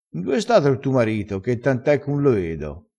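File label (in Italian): "tant e", dialetto crespinese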